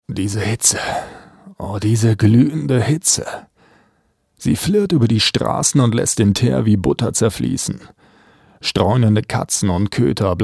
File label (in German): Schauspiel, Cool